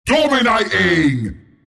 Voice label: announcer kill dominate; killing spree; dota; announcer dlc bristleback